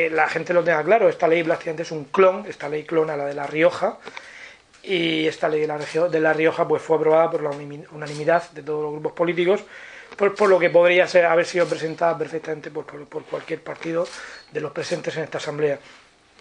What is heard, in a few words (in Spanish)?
Publicidad Institucional; en la aprobacion de la ley de comunicacion y publicidad institucional